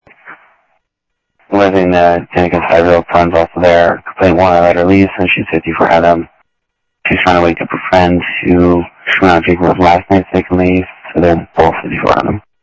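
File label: Sheriff East County, scanner audio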